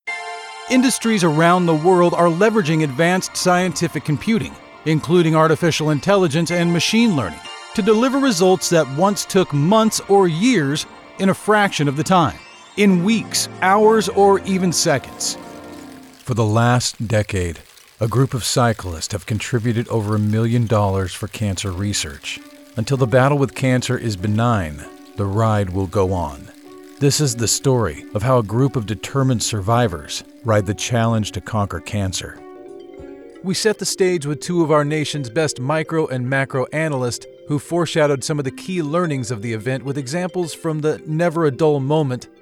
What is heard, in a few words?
Narration